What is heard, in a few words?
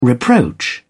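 "reproach", British English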